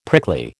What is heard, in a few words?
"prickly", American